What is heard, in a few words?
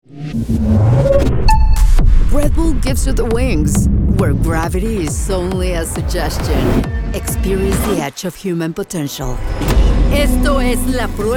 Sports Promo Bilingual